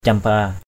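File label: "campa", Cham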